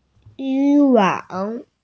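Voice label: "fishing net", Mandarin Chinese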